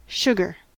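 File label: "sugar", US English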